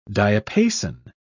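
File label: "diapason", British English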